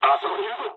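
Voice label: Japanese enemy detected mood low; War Thunder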